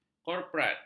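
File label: "korporat", Malay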